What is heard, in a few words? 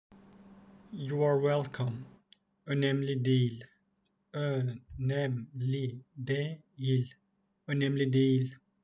"you are welcome", Turkish; the talking turkish phrasebook